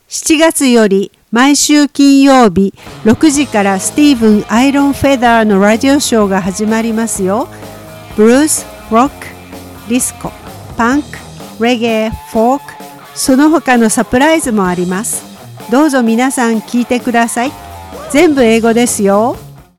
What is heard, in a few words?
radio spot; eniwa